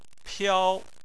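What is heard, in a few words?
"piao" first tone, Chinese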